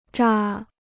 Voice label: "扎", Chinese

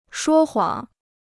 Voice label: "说谎", Chinese

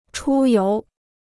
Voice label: "出游", Chinese

